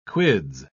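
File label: "quids", British English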